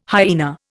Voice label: "hyaena", Indian

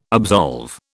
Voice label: "absolve", British

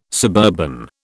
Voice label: "suburban", British

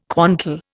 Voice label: "quantal", Indian

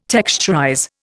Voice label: "texturize", Indian

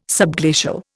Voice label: "subglacial", Indian

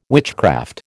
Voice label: "witchcraft", American

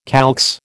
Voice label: "calx", American

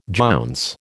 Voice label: "jounce", American

